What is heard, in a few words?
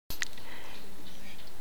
"consuegro", tlacochahuaya